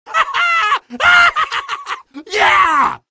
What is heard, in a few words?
cheer, male; hl2; coast; odessa; Source engine